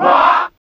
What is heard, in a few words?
wah group of men; ooh ahh